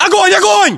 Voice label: order attack infantry, battlechatter, ru; localized english